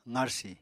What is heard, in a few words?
"qarsi", Apatani